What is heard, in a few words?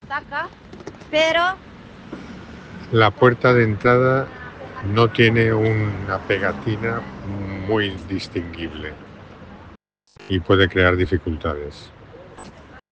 Voice message